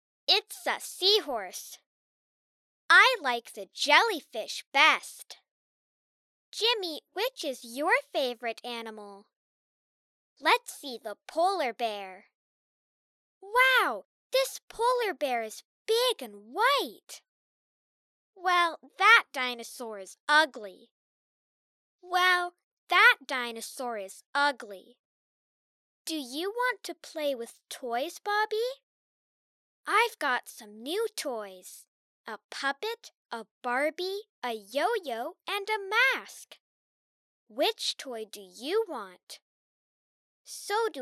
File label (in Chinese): 英文教学对话